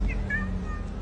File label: "Buongiorno", Italian; Italian Ringtone